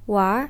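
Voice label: "wanr2", Chinese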